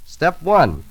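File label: step one announcer; numbers and letters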